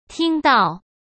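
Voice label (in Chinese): "听到", Mandarin Chinese; hsk; chinese flashcards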